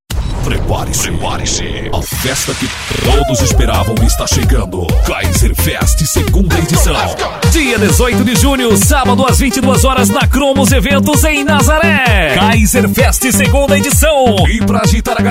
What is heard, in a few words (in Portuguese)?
chamada voz; kaiser fest